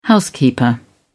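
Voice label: "housekeeper", British English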